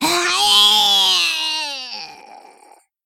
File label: Female Geist Death